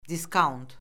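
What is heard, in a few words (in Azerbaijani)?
"diskaunt", Azerbaijani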